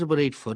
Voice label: "abouT eight foot", Welsh; əbʊɾɛɪt'fʊt; TAPS